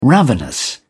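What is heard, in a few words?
"ravenous", British English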